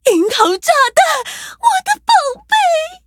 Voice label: levelup zh-hant; cookie run

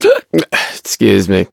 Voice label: touch, en; cookie run

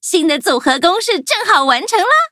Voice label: loading, zh-hant; cookierunwiki

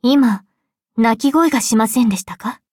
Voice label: upgrade, ja; Cookie Run

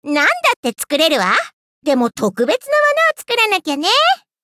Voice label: upgrade, ja; cookie run

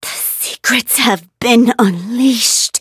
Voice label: defeat, en; cookierunwiki

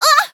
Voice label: hit fr; Cookie Run

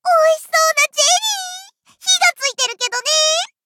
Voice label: level up, ja; Cookie Run

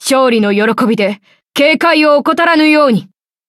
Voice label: victory, ja; Cookie Run

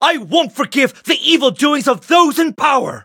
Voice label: touch, en; cookie run

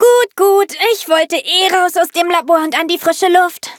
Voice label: loading, de; Cookie Run

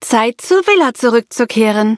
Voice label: victory, de; cookie run

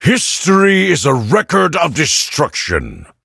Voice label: touch, en; cookie run

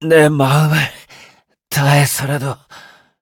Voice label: exhausted, ko; Mala Longxia

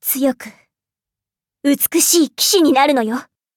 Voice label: levelup ja; cookierunwiki

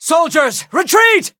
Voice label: defeat, en; cookie run

